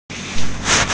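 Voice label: "chou3", Chinese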